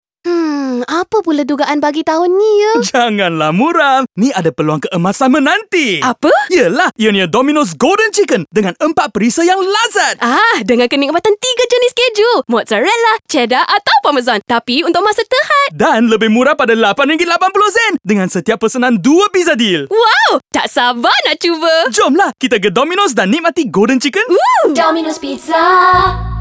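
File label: Malay; DOMINOS PIZZA CHICKEN IN HAND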